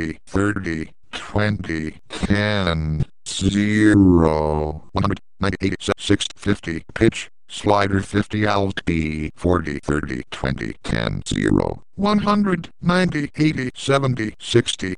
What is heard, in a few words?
classic sapi5 microsoft voices on windows7